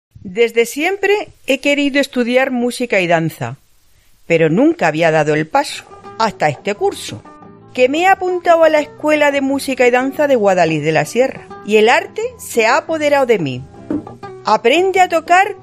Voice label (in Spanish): anuncio preinscripcion; EMMyD